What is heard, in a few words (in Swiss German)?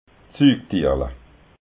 "sugtierla", alsace